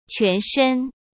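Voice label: "全身" Mandarin Chinese; hsk; chinese-flashcards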